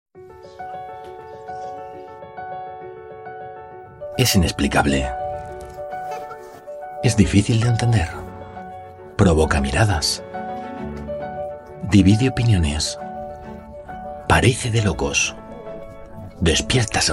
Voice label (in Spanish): spot